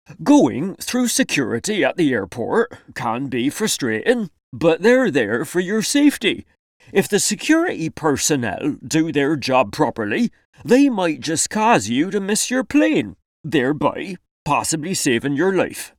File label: Cornwall, accents